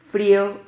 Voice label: "frio", Silabas

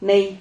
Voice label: "nei", Silabas

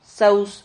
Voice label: "sauz", Silabas

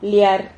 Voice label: "liar", Silabas

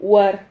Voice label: "huar", Silabas

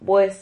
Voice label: "vues", Silabas

